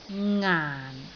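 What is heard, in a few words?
"ngaan4", Cantonese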